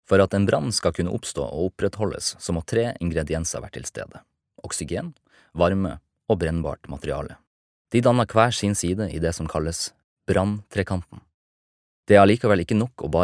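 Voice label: Norwegian e-learning, norsk, mann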